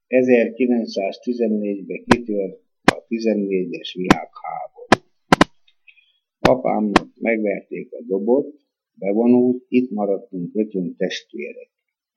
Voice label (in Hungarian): nyelvjaras gyujtesek